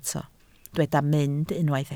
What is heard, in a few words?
"mynd", Welsh